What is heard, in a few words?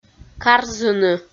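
"карзыны", Komi, female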